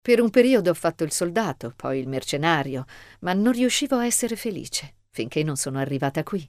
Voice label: Female Even Toned; Skyrim